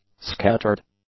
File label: "scattered", English; adjectives